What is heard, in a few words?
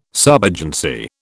"subagency", British